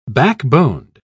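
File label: "backboned", British English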